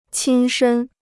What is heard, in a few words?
"亲身", Chinese